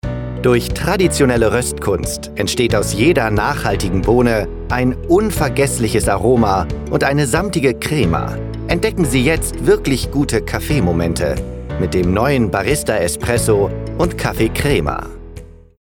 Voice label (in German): Werbung Kaffee